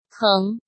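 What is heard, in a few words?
"Héng", Chinese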